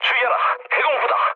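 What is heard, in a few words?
Korean damaged aa mood low, speech; War Thunder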